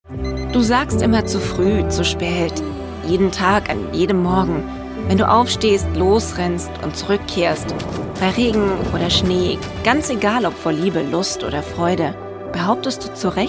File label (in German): Werbung FitnessWatch